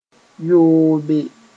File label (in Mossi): "yoobe", moore